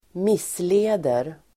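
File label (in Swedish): "missleder", Swedish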